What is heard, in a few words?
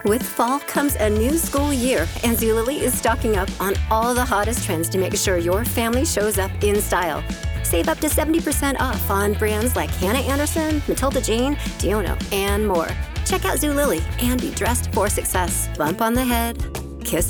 Commercial